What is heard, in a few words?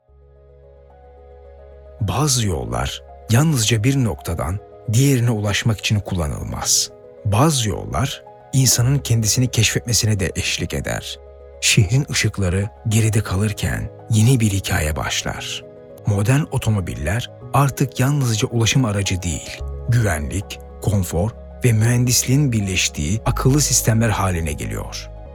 turkish narration